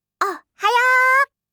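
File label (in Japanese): "ohhayoo", Japanese; aisatsu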